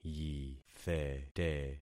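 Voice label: "yithete", concatenated syllables; Affixing experiment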